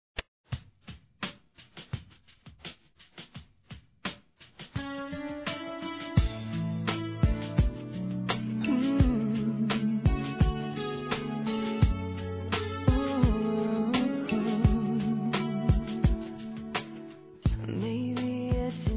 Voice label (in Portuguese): DIA DOS NAMORADOS SUAVE F; fem; Telemensagens 2017